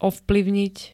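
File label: "ovplyvniť", Slovak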